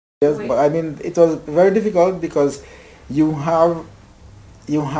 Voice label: Caribbean Dominican Republic 50 monologues; hatian creole

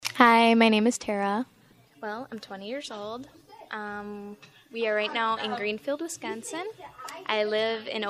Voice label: Wisconsin female 20; United States Midwest Dialects

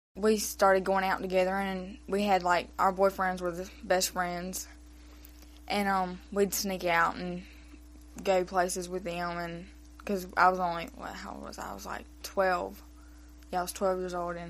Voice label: USA Georgia WF age 13

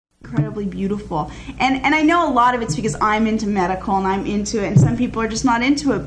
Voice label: Dialect US CA Monologue

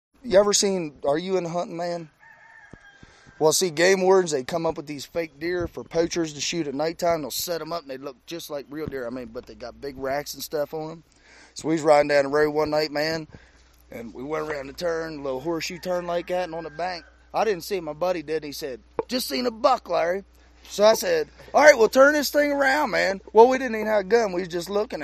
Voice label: West Virginia WM age 23

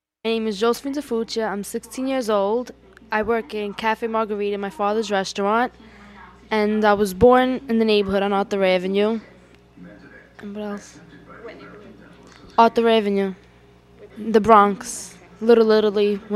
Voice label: Bronx Little Italy NY White Female Age 16

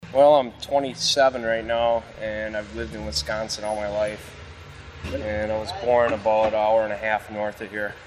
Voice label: Wisconsin man 27

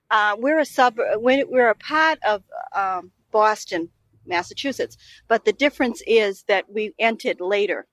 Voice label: US South Boston Female age 44

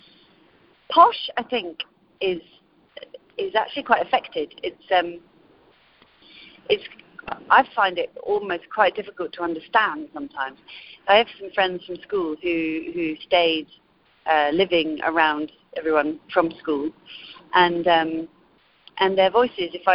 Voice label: English Woman 50s RP On Posh

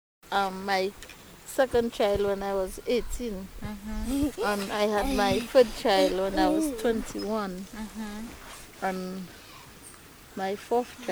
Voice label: Caribbean Dominican Republican woman 40 s

